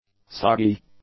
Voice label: "soggy", english; adjectives